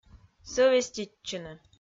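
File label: "сӧвеститчыны", Komi, female; audio dict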